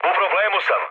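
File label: Serbian aircraft damaged mood med, speech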